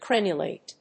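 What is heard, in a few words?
"crenulate", English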